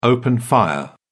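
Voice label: "open fire", British English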